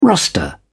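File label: "roster", British English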